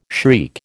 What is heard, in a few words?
"shriek", American